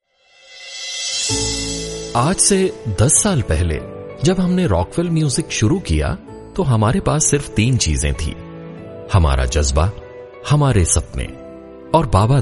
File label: Hindi M Corporate